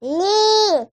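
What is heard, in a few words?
Toddler "Nay"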